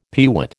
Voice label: "peewit", American